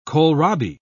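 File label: "kohlrabi", English, British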